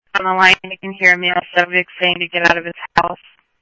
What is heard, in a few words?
Scottsdale Police, scanner audio; H-Scottsdale